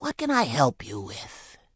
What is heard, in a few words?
Orc Female Standard NPC Vendor